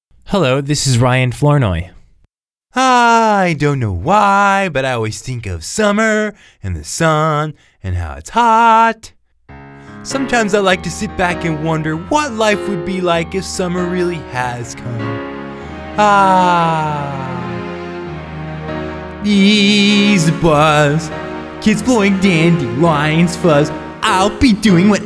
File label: Childrens Animation; Olaf from Frozen